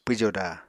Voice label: "pwjoda", Apatani

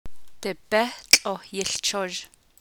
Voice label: The sheep is eating grass, navajo clauses; Imperfective (I)

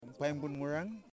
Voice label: "Male Paddle", Yimas

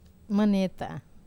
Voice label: "maneta", Wamesa

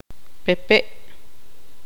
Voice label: tzutujil; mariposa

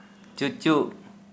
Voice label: Santali vagina

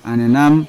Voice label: "anenam", Yawa; grandparent; unat

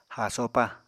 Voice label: "haasopa", Apatani

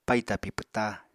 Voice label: "paì tapi pwta", Apatani

